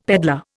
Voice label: "peddler", Indian; Dictionary